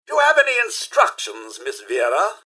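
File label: hello, robot; rivetcity; mrhandy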